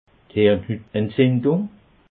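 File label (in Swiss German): "hirnhutentzindung", Alsatian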